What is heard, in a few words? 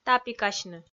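"тапикасьны", Komi, female; audio dict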